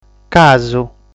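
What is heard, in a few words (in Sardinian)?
"Casu", Sardinian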